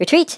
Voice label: "runaway", Irish; Worms Armageddon

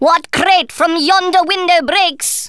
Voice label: drop, Speech, Thespian; Worms Armageddon

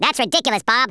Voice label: "stupid", US Sports, speech

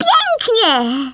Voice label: EXCELLENT, Speech, Polish; Worms Armageddon

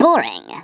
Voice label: "boring", Stiff Upper Lip

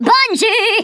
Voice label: "bungee", Stiff Upper Lip

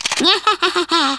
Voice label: "collect", Hungarian; Worms Armageddon

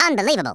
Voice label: "brilliant", US Sports, speech

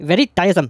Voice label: boring, Speech; Worms Armageddon; The Raj

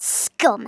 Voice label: "traitor", Scouser

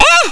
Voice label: OOFF, Speech Hungarian; Worms Armageddon

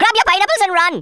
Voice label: "run away", Rasta

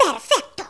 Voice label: "PERFECT", Spanish

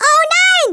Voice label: WHAT THE, Speech, German; Worms Armageddon

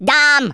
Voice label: "uhoh", Rasta

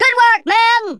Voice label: flawless, Drill Sergeant; Worms Armageddon

